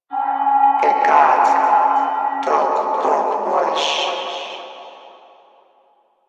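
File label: Announcer Female, English; Warframe; Corpus Venus Announcer; Battle Barks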